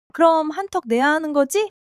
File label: joy